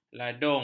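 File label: "ladung", Malay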